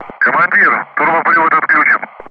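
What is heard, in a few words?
Speech Russian; Ground Crew; DCS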